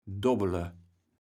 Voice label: "dobbele", kaevels platt

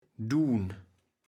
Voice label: "dun", kaevels platt

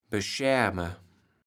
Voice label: "beschärme", kaevels platt

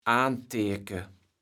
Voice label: "anteeke", kaevels platt

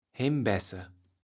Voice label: "Himbässe", kaevels platt

